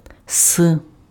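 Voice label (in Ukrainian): "с", Ukrainian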